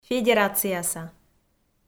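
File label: "федерацияса", Komi, female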